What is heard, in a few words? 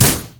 "oh", basicattack; LoL; lulu